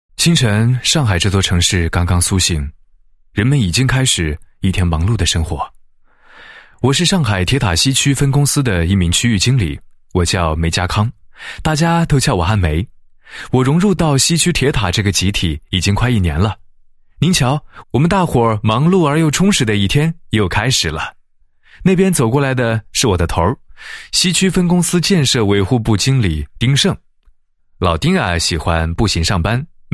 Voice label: 男 自白; 上海铁塔西区分公司区域经理

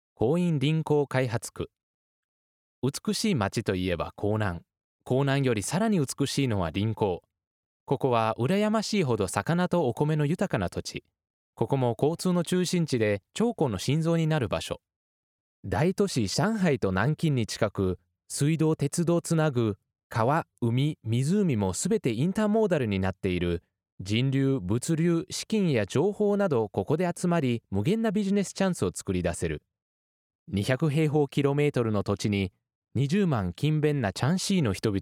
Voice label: 外籍日语男 地区经济开发区宣传片

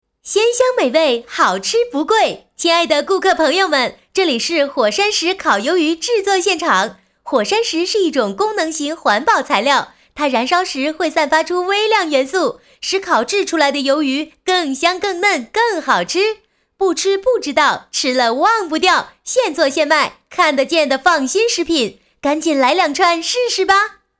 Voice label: 女 促销, 国语女声配音; 火山石烤鱿鱼

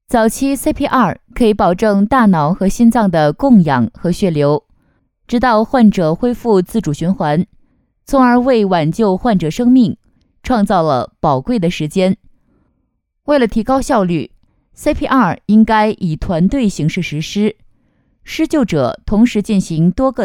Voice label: 女 课件 早期CPR

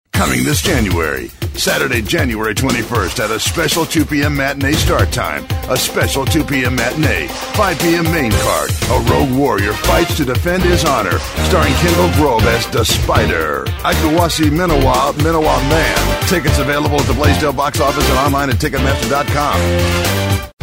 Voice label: 外籍英男, 激情力度

